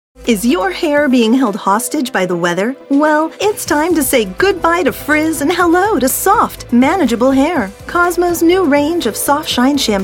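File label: USA TV commercial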